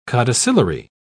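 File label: "codicillary", English, British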